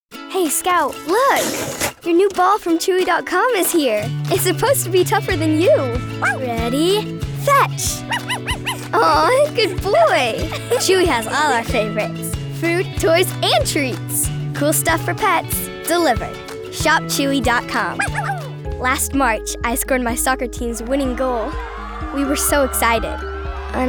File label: Commercial